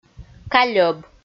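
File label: "кальӧб", Komi, female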